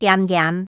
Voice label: "恬恬", 客語

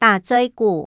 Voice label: "打嘴鼓", 客語

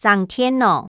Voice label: "整電腦", 客語

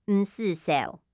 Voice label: 毋使愁, 客語

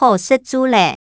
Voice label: 好食晝咧！, 客語

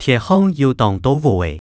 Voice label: 田項有當多禾仔, 客語

